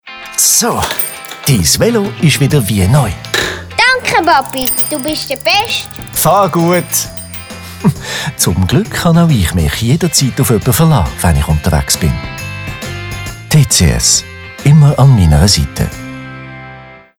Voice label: radio spot